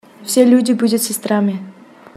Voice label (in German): russisch